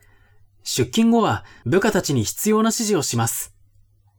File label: man